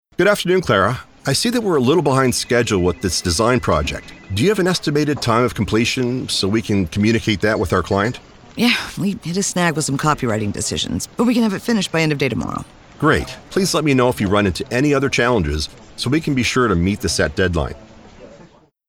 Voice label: eLearning, INTERACTIVE